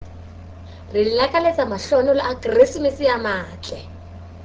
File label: South Sotho South Africa, christmas